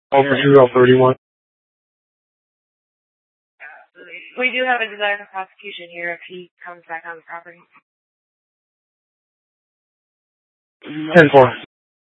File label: PD Dispatch